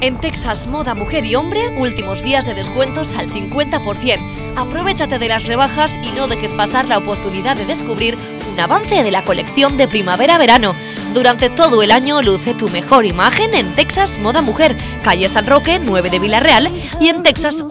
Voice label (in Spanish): texas mujer y hombre